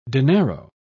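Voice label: "dinero", British English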